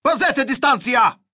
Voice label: neutral